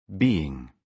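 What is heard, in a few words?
"being", British English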